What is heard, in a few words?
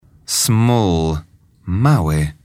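"small", English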